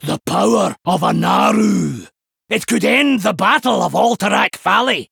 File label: male dwarf; Vanndar; In Game Turn 13